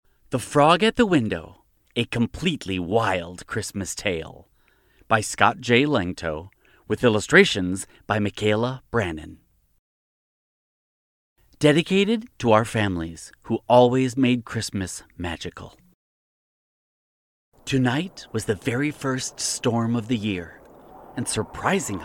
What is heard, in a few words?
eBook Voiceover